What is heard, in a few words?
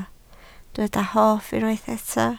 "hoff", Welsh